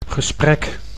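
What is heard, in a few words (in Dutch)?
"gesprek", Dutch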